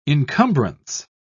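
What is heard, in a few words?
"incumbrance", English, British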